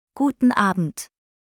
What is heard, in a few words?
German Good evening; Greetings